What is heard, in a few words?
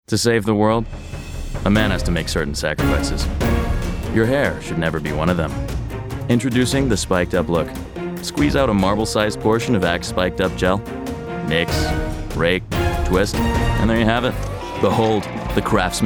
Commercial; Commercial Demo